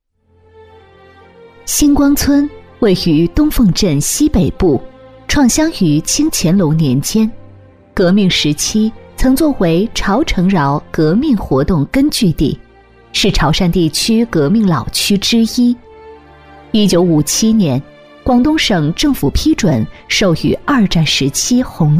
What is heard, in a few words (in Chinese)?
女 专题配音 大气稳重; 新光村; 大气知性; 大气浑厚; 女21-专题配音《新光村》-大气稳重